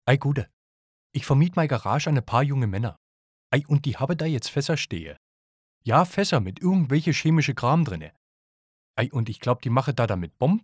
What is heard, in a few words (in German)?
Dialekte Hessisch; voice